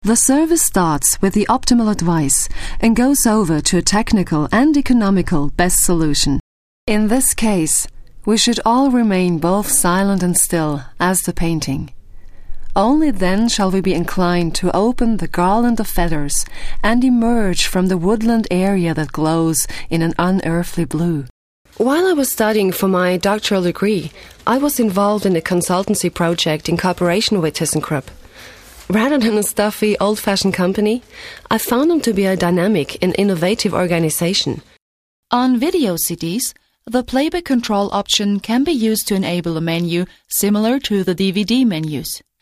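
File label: British English, Kommentar, Neutral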